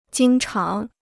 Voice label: "经常", Chinese